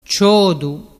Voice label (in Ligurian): "ciodu", dialettu